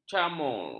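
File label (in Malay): "camur", Malay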